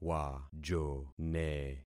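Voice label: "wajone", concatenated syllables; Affixing experiment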